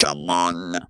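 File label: "come on", Funky Vocals; USB Soundscan vol.18; AKAI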